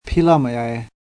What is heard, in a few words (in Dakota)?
"pilamayaye", lakota